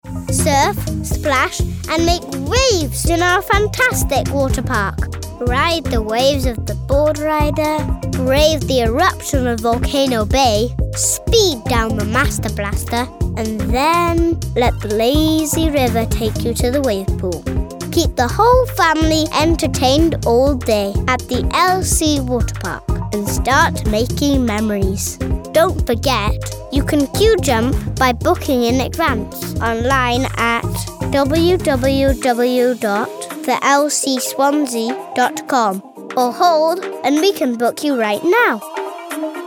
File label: Welsh child voiceover